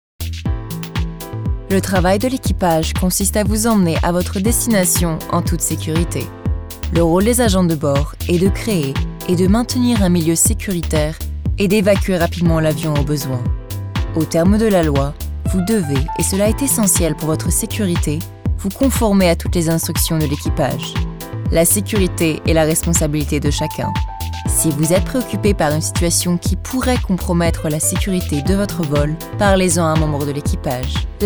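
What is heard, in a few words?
FR Narration, French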